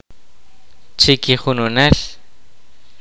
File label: kaqchikel; each one